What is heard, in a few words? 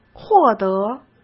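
"获得", Mandarin Chinese; chinese-flashcards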